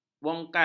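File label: "bongkal", Malay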